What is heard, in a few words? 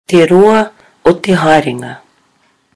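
"te roa o te haerenga", Māori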